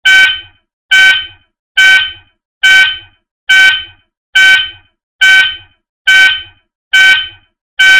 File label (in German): feueralarm ansage schule